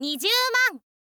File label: info girl